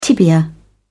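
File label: "tibia", British English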